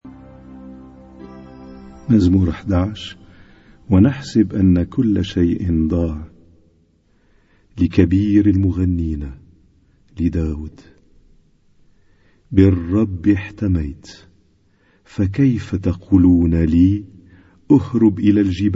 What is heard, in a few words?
Arabic Psalm Zaboor